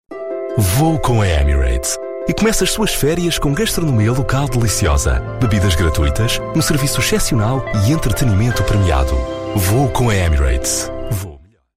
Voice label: pt commercial radio